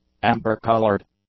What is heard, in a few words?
"amber-coloured", English; adjectives